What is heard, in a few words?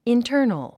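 "internal", English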